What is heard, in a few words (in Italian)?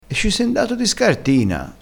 "di scartina", dialettale